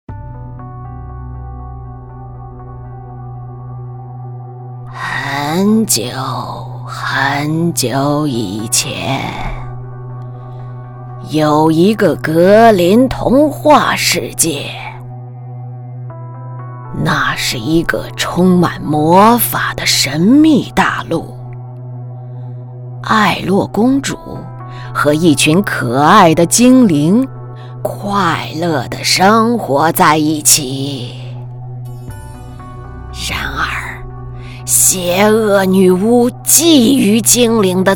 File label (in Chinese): 黑暗童话 沧桑 女巫